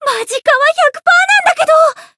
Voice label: voice jp; millecha